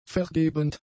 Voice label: "vergebend", German; adjectives

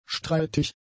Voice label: "streitig", German; adjectives

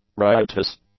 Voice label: "riotous", English; adjectives

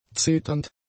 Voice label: "zeternd", German; adjectives

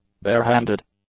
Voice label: "barehanded", English; adjectives

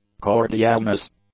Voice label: "cordialness", English; nouns

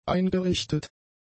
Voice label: "eingerichtet", German; adjectives

